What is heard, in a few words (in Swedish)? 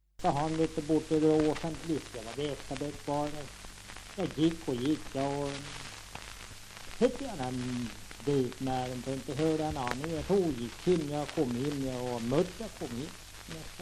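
Tuna Medelpad, dialekter, svenska sagor; Folkminnen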